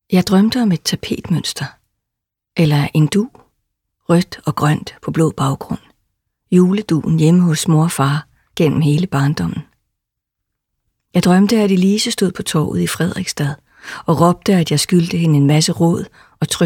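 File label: Danish, Audiobook, female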